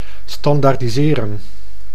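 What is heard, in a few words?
"standaardiseren", Dutch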